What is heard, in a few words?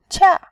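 "qia", Mandarin, tone 4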